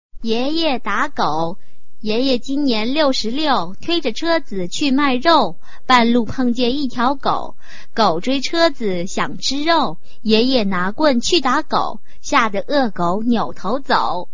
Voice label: chinese tongue twister